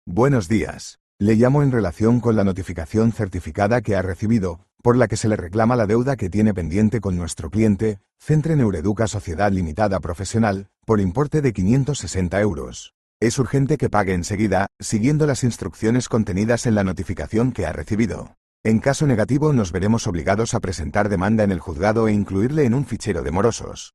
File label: audio call